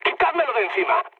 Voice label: aircraft damaged, mood med, speech; War Thunder